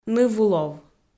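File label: "нывулов", Komi, female; audio dict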